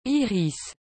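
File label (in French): "iris", French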